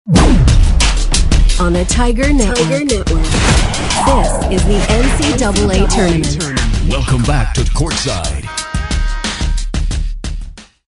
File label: Sports Imaging Basketball Tournament; NCAA